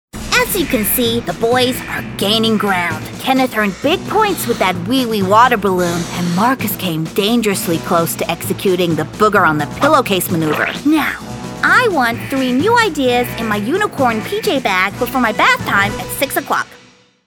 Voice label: Animation; SOPHIE